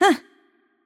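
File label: Hurt Light, Czech; VO; cs CZ; bloom minigame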